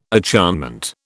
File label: "acharnement", British